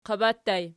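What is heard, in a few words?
"qaba" ergative plural, Archi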